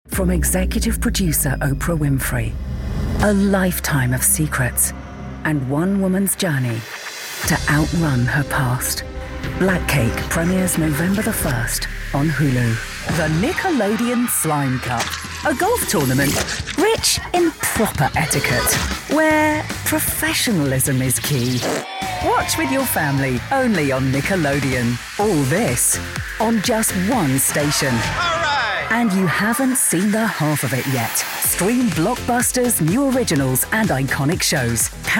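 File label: promo and trailer, Warm Natural Classy Voiceover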